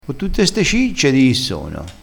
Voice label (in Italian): "cicce", crespinese